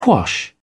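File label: "quash", British English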